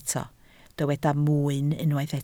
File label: "mwyn", Welsh